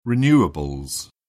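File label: "renewables", English, British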